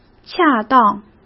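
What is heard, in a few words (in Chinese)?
"恰当", Mandarin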